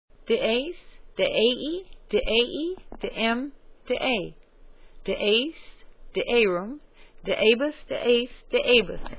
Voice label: dies slow, latin